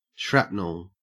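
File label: "shrapnel", Australian English; pronunciations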